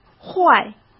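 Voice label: "坏" Mandarin Chinese; hsk; chinese-flashcards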